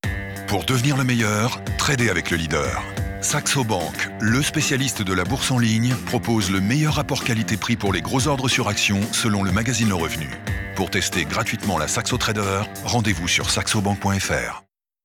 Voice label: radio; saxobanque

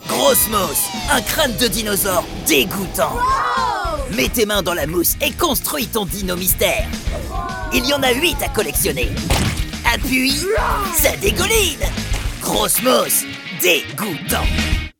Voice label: publicite; Grossmos

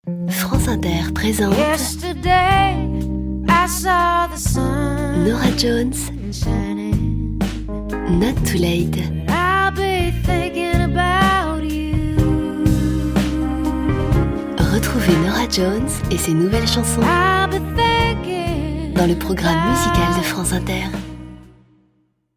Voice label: intimiste velour

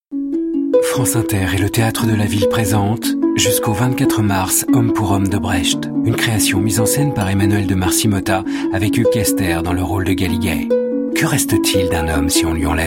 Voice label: Posé doux; France Inter

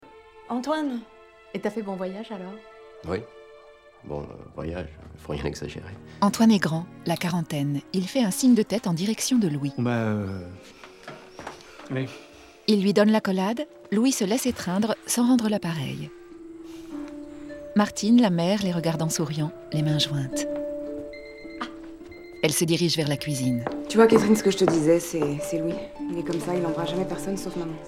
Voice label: voix off audiodescription; PALME D'OR CANNES 2016; XAVIER DOLAN; JUSTE LA FIN DU MONDE

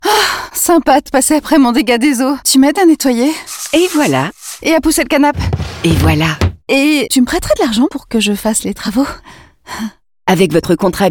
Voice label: comédienne voix off assurance; thelem

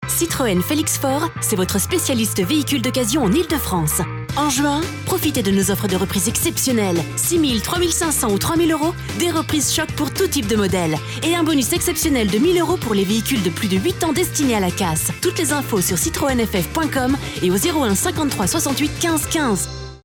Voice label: spot mix; citroen

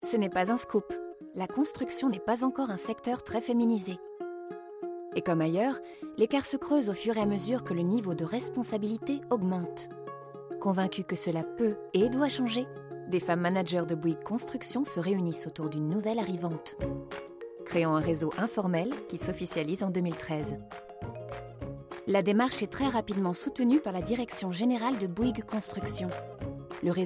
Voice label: INSTIT FR; BouyguesRH

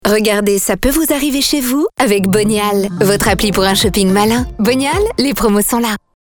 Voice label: Pub TV; Bonial

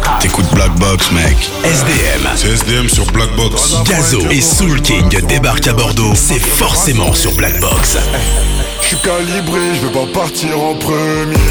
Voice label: Habillage TV Radio Concert FR; Blackbox Concert 2025

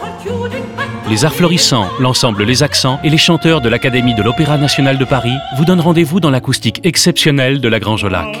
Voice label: Spot voix d automne; BPP; Evian

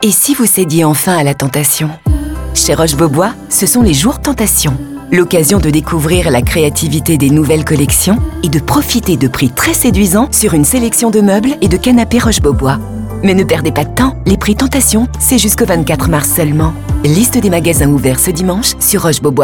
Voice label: comedienne voix off; roche bobois

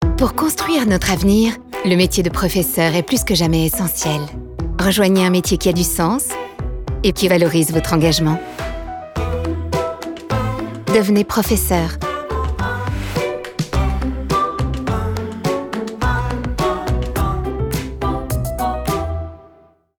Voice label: Pub TV, recrutement; Ministere de l'education

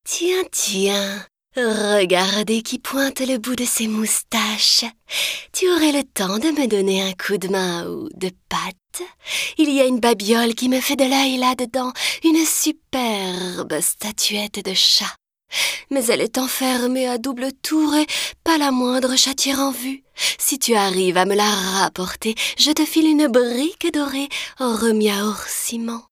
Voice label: provoquante sensuelle manipulatrice; CATWOMAN

